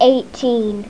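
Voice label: "eighteen", English; Natalia's Dots 3.1.1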